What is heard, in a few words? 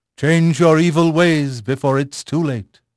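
English male; Pirates Of The Caribbean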